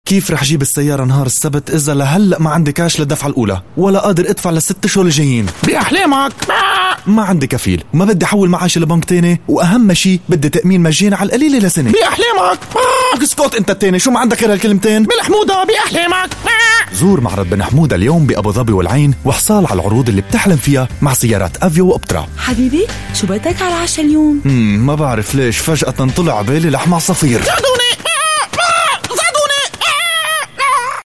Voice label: Arabic Male